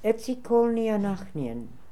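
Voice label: "Etzikolnianakhnian", pronunciations; Fourth Way; Beelzebub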